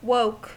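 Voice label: "woke", American English; pronunciations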